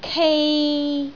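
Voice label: "kei3", Cantonese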